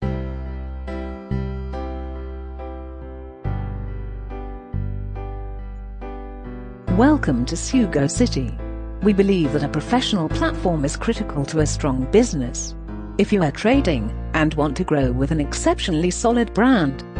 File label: music voice mixed en; welcome to sugocity